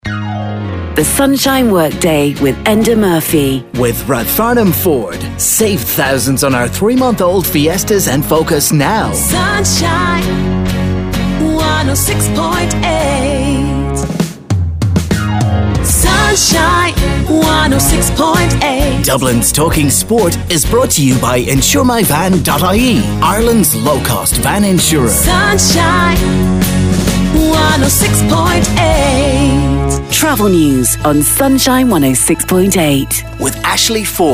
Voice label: english irish promos